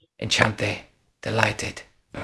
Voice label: Voice Acting, es